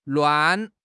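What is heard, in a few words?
"luan4", Mandarin Chinese; HSK; HSK4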